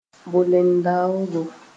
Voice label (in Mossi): "bolen-daoogo", moore; axle